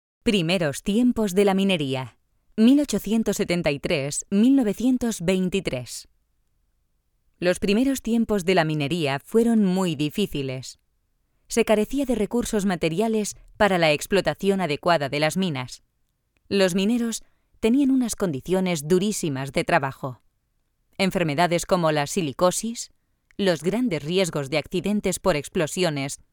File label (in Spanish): audioguia; audioguia museo de la mineria; esp